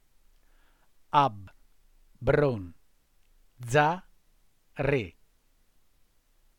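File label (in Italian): "abbronzare" Italian word, voce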